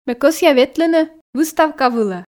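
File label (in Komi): ме кӧсъя ветлыны выставка вылӧ, Komi, female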